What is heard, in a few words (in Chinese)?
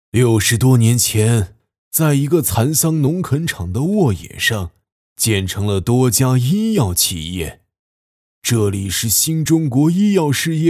爷爷角色; 对口型; 南昌--赣江新城; 温暖磁性的声音